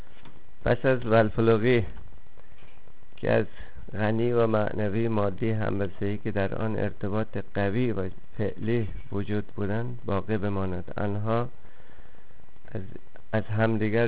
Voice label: Dari; Parrainage